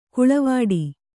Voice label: "ಕಾಳವಟ್ಟ", Kannada